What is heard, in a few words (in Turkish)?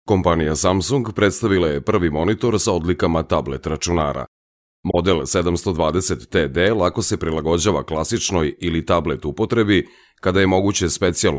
Sirpca Erkek, seslendirme; erkek sesler